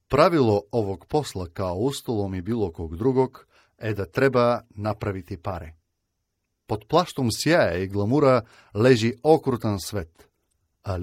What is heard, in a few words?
Serbian, Serbian voice overs, Male